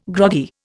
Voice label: "groggy", Indian

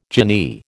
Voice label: "jinnee", American

